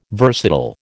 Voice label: "versatile", American